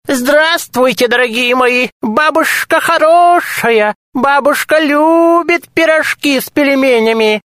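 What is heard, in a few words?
old woman; kamedi klab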